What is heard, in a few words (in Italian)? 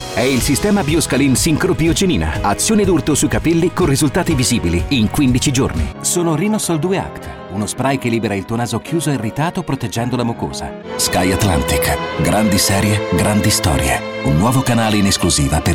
ITALIAN VOICES Pharma Reassuring, male voices